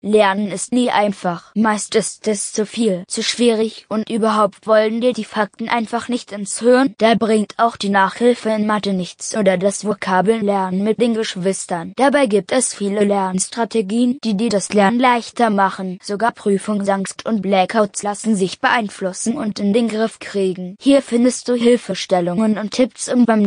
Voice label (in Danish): Tysk barn